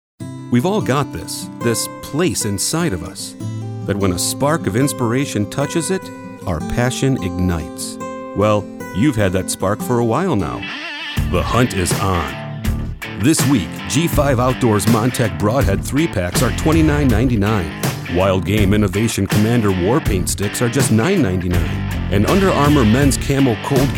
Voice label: commercial